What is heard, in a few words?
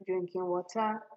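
"drinking water", Nigerian Pidgin, female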